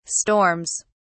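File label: "storms", British English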